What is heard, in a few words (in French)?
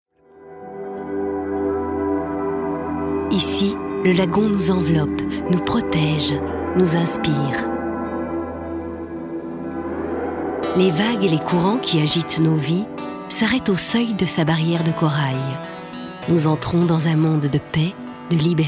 documentaire; Ile maurice